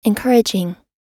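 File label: "encouraging", American English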